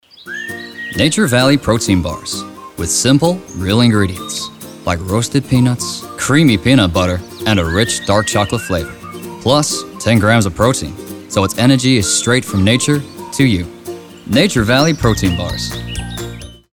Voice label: Commercial; Nature Valley